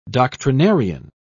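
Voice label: "doctrinarian", British English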